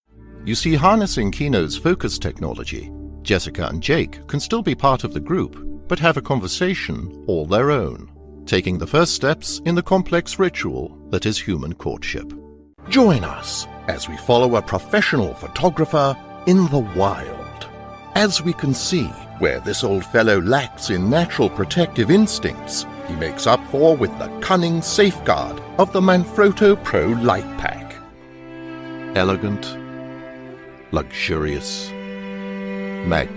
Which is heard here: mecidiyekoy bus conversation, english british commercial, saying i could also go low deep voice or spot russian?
english british commercial